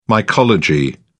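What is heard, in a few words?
"mycology", British English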